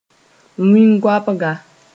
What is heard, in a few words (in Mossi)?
"wĩn-goabga", moore